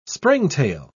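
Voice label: "springtail", English, British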